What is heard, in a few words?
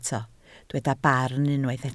"barn", Welsh